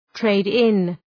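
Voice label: "trade-in", English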